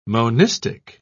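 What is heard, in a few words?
"monistic", English, British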